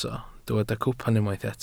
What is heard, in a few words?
"cwpan", Welsh